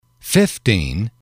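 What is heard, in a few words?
"fifteen", English, male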